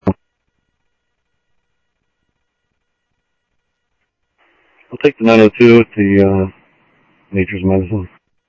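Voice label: Sheriff East County, scanner audio; MCSO - EAST; South Scottsdale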